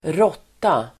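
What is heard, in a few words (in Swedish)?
"råtta", Swedish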